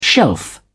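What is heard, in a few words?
"shelf", British English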